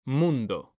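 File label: "mundo", Spanish, male; mekanta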